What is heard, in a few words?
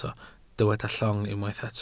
"llong", welsh